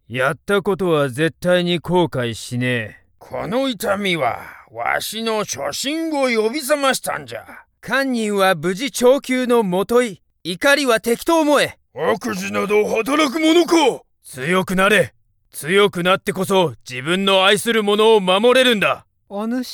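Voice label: Japanese male Game Characters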